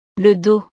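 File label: "le dos", French; partes del cuerpo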